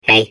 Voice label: babble; portal2